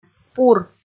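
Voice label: "пур", Komi, female; kpv audio dict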